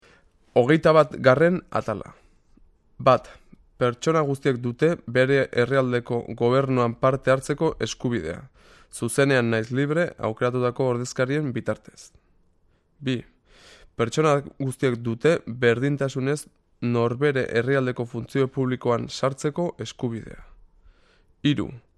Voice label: Basque

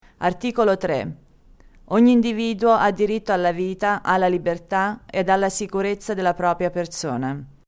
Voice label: Italian

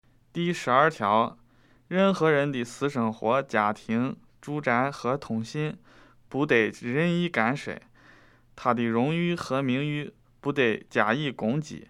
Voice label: Mandarin

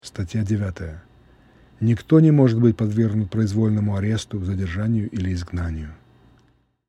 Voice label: Russian

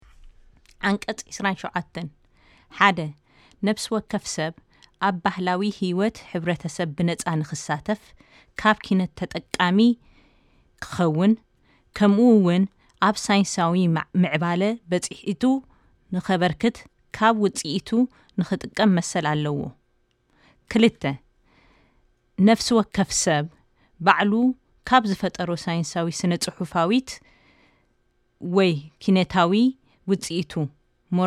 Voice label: Tigrinya

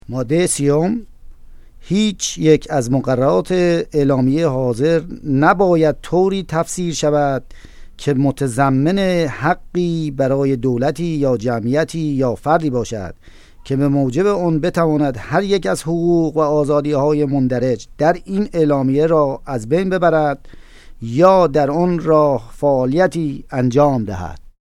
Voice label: Persian

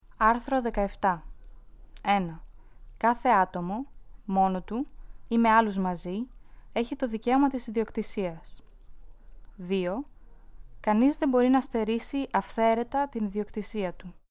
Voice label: Greek

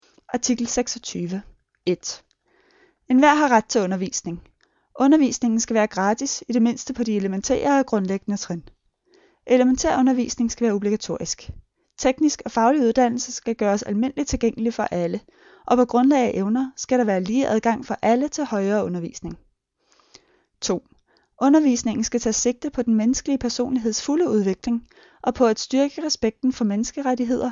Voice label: Danish

